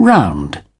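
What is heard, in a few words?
"round", British English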